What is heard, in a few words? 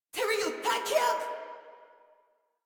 Player Spotted Female, Battle Barks; Warframe; Modular Corpus Female